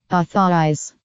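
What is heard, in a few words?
"authorise", American English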